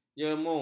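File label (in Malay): "jemu", Malay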